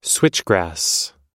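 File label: "switchgrass", English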